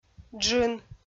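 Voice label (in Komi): "джын", Komi, female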